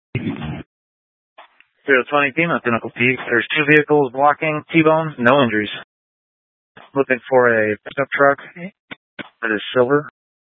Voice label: Scottsdale Police, Simulcast

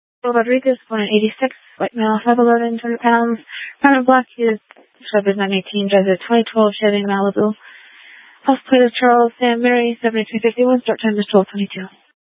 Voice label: Police Dispatch

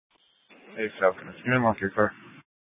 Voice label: Mesa PD Fiesta Dispatch; Mesa Simulcast

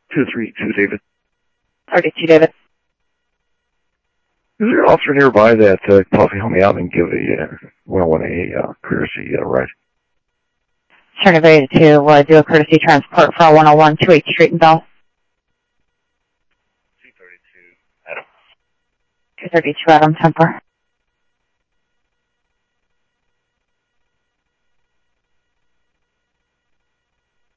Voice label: Phoenix PD, scanner audio

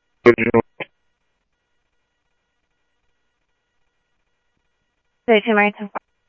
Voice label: scanner audio trunk

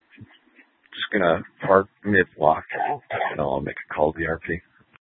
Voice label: Dispatch; Scottsdale

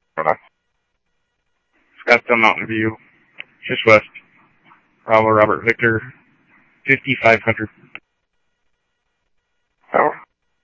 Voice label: Police, scanner audio trunk; H-Scottsdale

